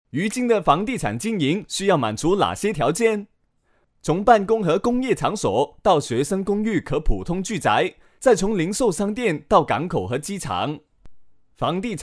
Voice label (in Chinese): 男 广普, 粤男